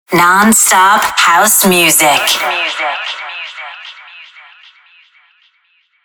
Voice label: sweeper non stop house music female; killerintrosnet